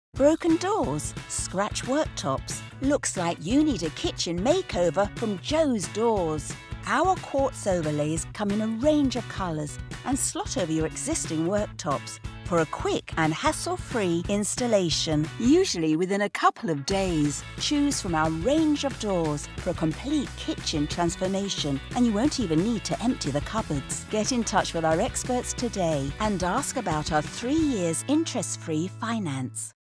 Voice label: english british promos